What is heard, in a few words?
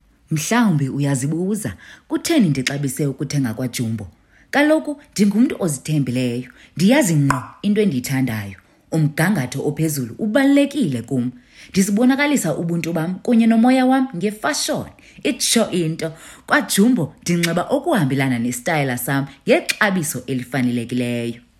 Xhosa Conversational